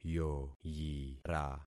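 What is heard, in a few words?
"yoyira", concatenated syllables; Affixing experiment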